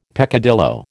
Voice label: "peccadillo", American